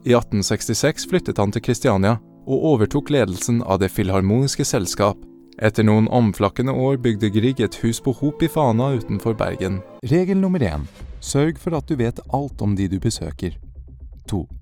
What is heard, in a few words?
NORWEGIAN VOICE REEL